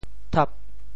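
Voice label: "thap8", Teochew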